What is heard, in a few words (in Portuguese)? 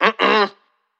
Negaçao intensa